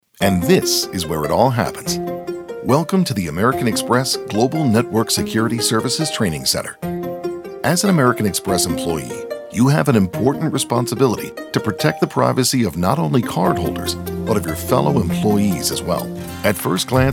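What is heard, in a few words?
Corporate Narration - Conversational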